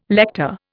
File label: "lector", Indian